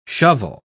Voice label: "shovel", pronounce

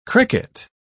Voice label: "cricket", pronounce

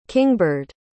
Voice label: "kingbird", pronounce

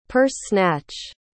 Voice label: "purse-snatch", pronounce

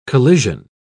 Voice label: "collision", pronounce

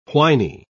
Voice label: "whiny", pronounce

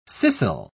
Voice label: "scissile", pronounce

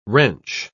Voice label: "wrench", pronounce

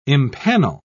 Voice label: "impanel", pronounce

